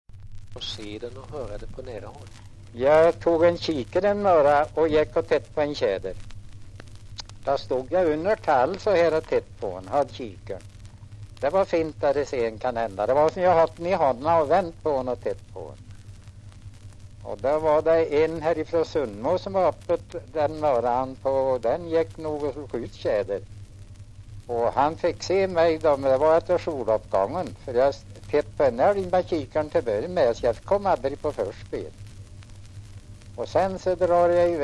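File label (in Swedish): Sunnemo Värmland, svenska sagor dialekter; Folkminnen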